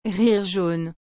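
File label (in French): "rire jaune", French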